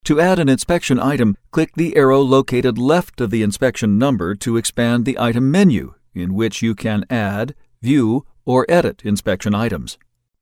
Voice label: Menu Directions E Learning voice over